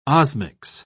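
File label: "osmics", British English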